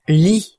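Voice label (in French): "lit", French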